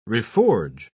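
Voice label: "reforge", English, uk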